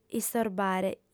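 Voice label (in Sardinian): "istorbare", Sardinian, female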